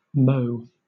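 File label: "mot", British English; pronunciations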